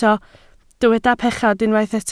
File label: "pechod", Welsh